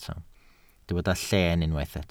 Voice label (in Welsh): "llen", Welsh